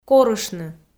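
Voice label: "корыштны", Komi, female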